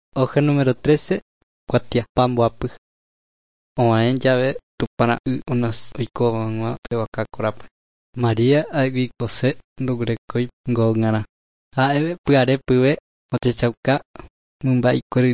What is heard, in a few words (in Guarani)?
guarani mbya; good news and words of life; bible